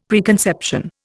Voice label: "preconception", Indian; Dictionary